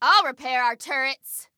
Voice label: Self Repair Turrets, Female